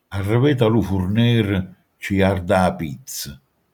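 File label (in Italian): "fornaio", Manfredonia dialect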